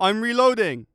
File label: reloading, Voice Lines Combat Dialogue; EndlessVendetta